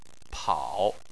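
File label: "pao" third tone, Chinese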